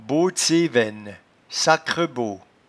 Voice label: "bosdesevene", patois jura

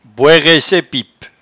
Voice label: "boeraiesaipipe", patois jura

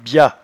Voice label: "biatbillet", patois jura

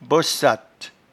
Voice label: "bossattes", patois jura

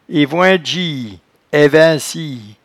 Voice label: "evoindgie", patois jura

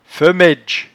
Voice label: "femaidge", patois jura

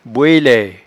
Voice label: "boelaie", patois jura

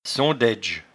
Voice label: "sondaidge", patois jura

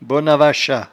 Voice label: "bonavachat", patois jura

